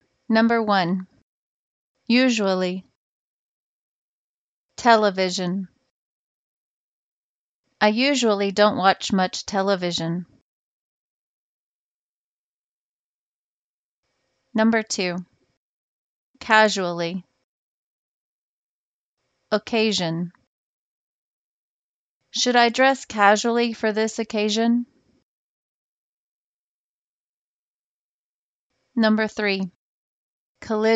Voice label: zh sentences am